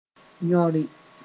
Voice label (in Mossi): "yõre", moore